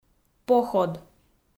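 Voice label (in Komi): "поход" Komi, female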